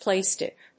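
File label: "placekick", English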